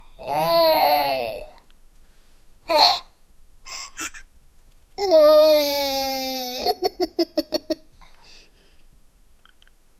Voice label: a little boy 10 year; child